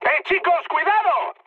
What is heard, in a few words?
near waypoint, mood high, speech; War Thunder